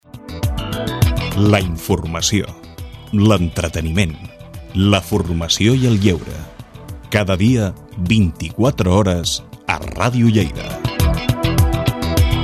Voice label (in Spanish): Publicidad Radio voces comerciales musica; Radio Lleida